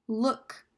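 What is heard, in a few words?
"Look", English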